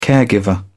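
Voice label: "caregiver", British English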